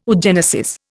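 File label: "oogenesis", Indian English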